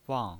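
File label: "wang", pinyin voice, fourth tone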